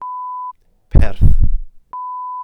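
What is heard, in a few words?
"perth", Welsh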